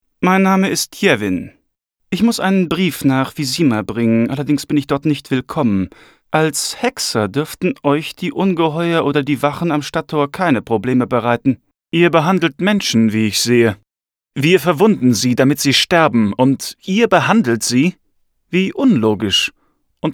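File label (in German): Game, deutsch Männer; sprecher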